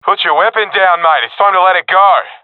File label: sees friend killed, professional epic, agents; vo; Source Model Packs; Counter Strike Model Pack; CS2